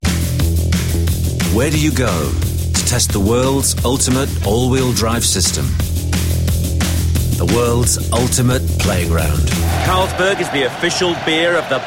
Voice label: COMMERCIAL VOICEOVER